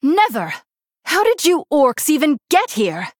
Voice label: Human Female; Jaina